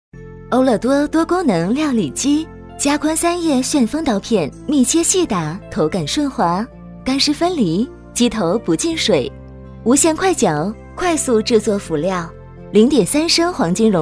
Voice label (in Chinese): 女 广告 料理机; 欧乐多料理机